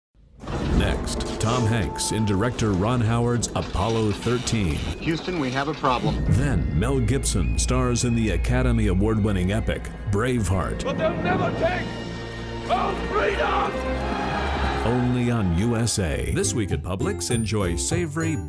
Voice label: Commercial